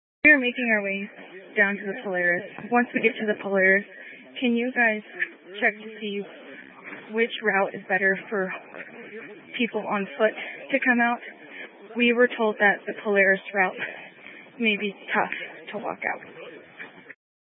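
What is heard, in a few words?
Incident Tac 3, Scanner audio recording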